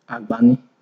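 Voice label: "agbani", Nigerian Pidgin, male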